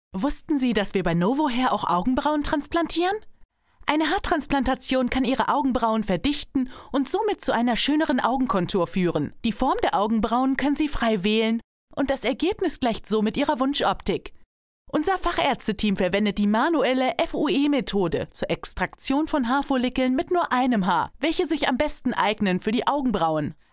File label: GERMAN